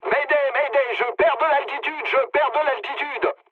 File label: aircraft destroyed mood low, speech fr